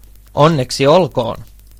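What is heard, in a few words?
congratulation, Scottish Gaelic; gcompris-data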